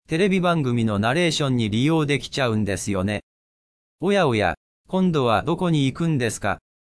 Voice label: narration